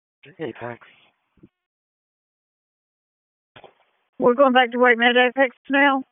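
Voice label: EMS Dispatch, p25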